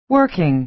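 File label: "working", American English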